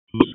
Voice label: EMS ALERT, p25; wake